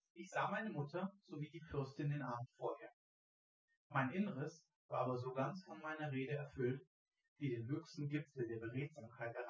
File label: male synthconv; SiSEC08; SiSEC underdetermined